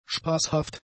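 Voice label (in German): "spaßhaft", german; adjectives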